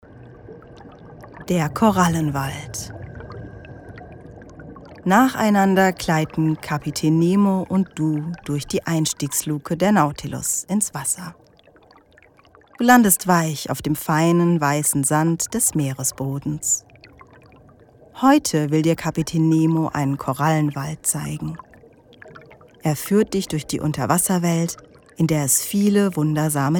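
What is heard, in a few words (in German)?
hoerbuch traumreise, Sprecherin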